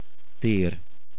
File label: "Square", hungarian